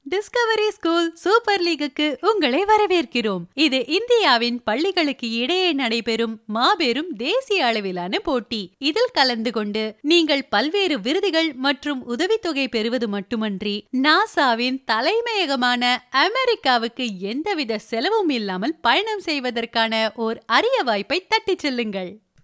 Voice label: Tamil; Discovery school